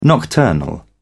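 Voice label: "nocturnal", British English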